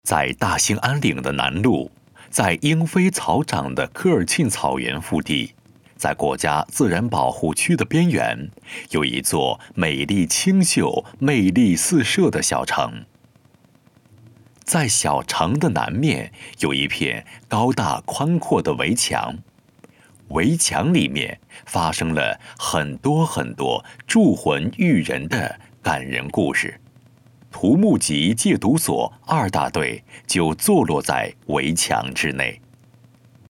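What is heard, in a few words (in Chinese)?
【专题】男-深沉浑厚; 大兴安岭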